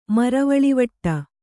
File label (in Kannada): "ಮರವಳಿವಟ್ಟ", Kannada